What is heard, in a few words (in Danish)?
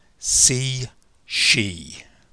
engelsk see she, engelsk [si: ʃi:]